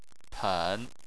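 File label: "pen" third tone, Chinese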